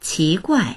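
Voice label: "qi2guai4", Mandarin Chinese; 奇怪; 奇妙だ、変な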